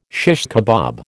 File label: "shish kebab", American